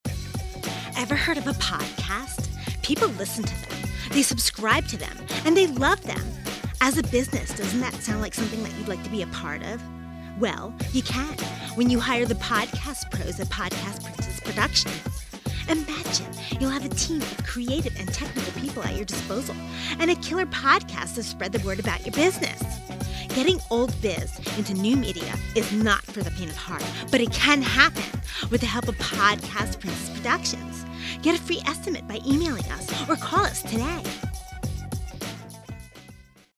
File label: Commercial Voice Over Long Form Character